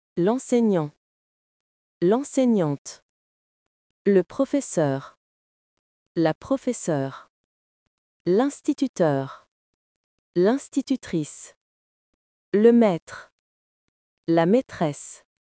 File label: teacher in french